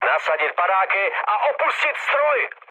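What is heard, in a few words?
bailout, Czech, mood med; War Thunder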